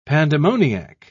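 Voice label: "pandemoniac", British English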